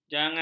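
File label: "jangan", Malay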